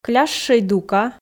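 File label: "клящӧй дука", Komi, female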